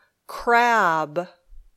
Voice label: "crab", English